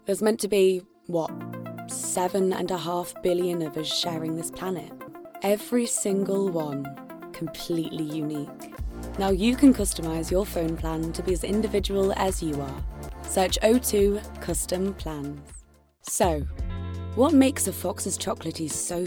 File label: commercial